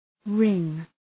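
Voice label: "ring", English; {rıŋ}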